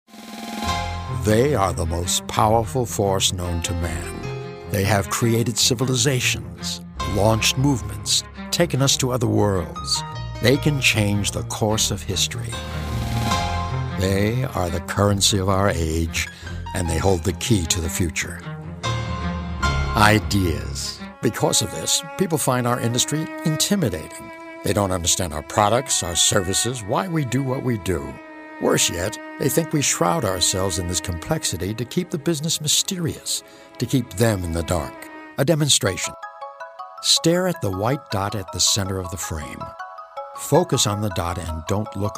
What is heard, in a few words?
Narration